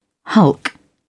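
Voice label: "hulk", English, uk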